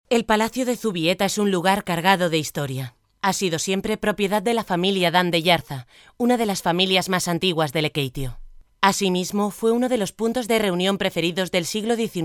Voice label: spanish f narration, spanish voice demos